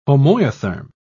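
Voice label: "homoiotherm", British English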